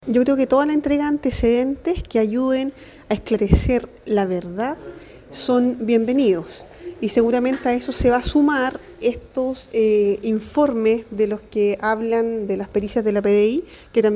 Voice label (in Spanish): CUÑA